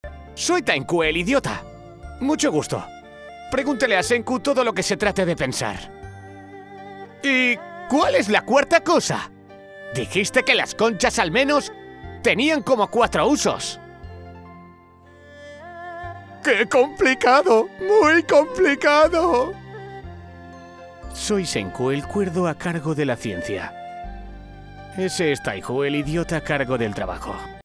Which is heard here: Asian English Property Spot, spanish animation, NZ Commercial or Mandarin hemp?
spanish animation